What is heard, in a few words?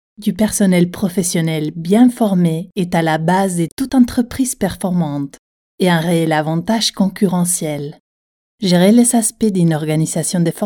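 French corporate professional assured smiling, female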